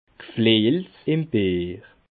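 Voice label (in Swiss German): "gflejels", Bas Rhin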